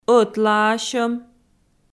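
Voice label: "ӧтлаасьӧм", Komi, female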